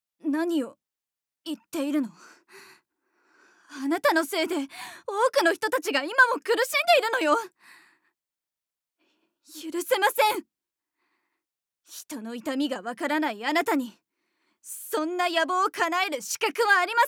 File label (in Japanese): 正義感のある女性